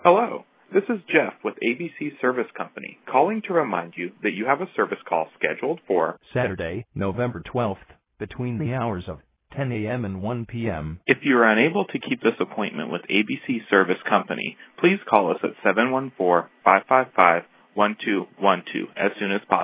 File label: recording voicemail